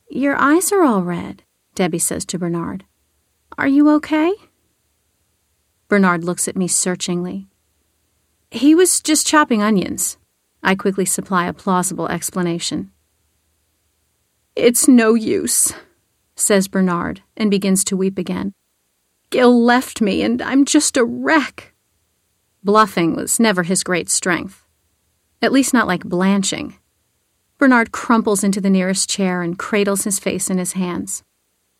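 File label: female fiction, narrator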